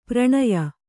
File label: "ಪರಿಣಯ", Kannada